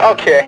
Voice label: "okay", expletives